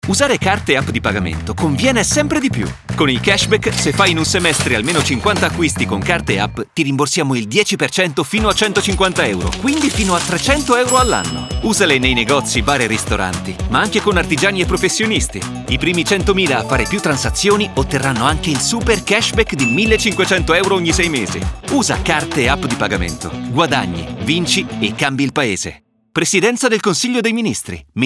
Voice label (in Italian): Radio; Cashback